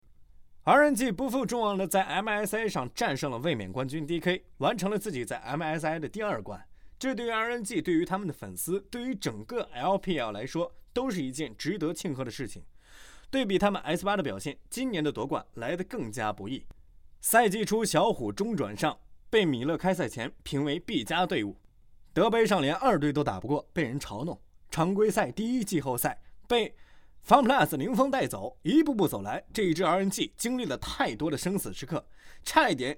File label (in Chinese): 游戏解说